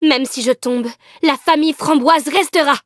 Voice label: defeat, fr; Cookie Run

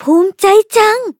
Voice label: level up, th; Cookie Run

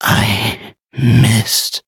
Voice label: hit, en; cookie run

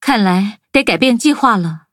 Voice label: defeat, zh-hant; cookierun

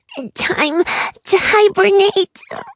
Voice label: hit, en; Cookie Run

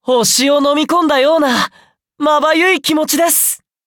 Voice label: upgrade, ja; cookierunwiki

